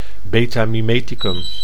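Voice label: "beta-mimeticum", Dutch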